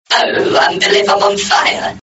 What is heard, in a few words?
pain; Botler